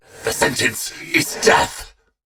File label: Attack, en; Warframe; Battle; Dax; DaxKnight